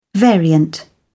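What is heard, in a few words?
"variant", British English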